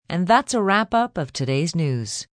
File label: News Wrap F, Broadcast, announcer, wrap-ups, female; greetings/wrap-ups; VoiceBox